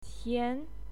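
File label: "qian", Mandarin Chinese, second tone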